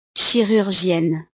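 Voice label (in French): "chirurgienne", French; profesiones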